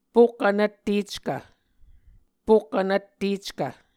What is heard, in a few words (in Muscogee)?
"pokk vnvtteckv", Muscogee